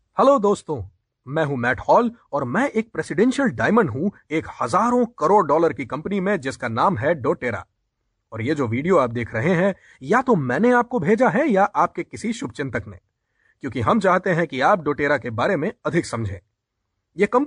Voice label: Hindi Male